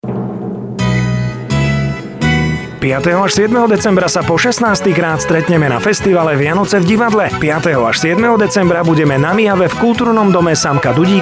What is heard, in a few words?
radio; vianoce v divadle